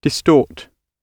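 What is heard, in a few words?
"distort", British English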